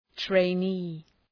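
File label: "trainee", English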